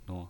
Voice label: "nuo0", pinyin